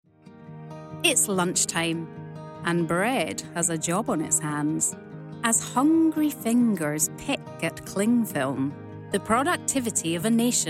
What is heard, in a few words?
Commercial; CO-OP